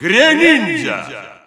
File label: Russian Announcer; Greninja; SSBU